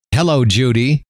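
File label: hello, male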